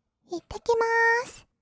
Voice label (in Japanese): "いってきまーす", kawaii voice; ASMR; 挨拶; 1200 kawaii voice!!